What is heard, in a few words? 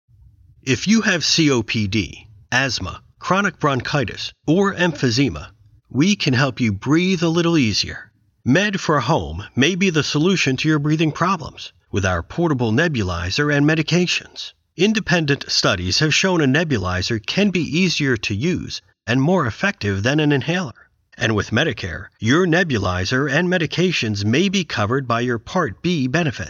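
US English, male; Breathe Easier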